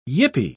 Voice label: "yippee", pronounce